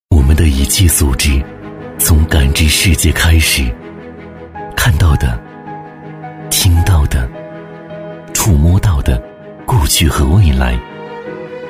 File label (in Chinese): 男 走心宣传片 低沉励志; 所知